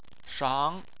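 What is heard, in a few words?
"shang" tone 2, Chinese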